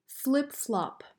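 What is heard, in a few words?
"flip flop", English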